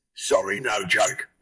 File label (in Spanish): Voice Acting es M